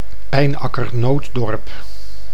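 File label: "Pijnacker-Nootdorp", Dutch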